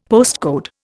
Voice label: "postcode", Indian; Dictionary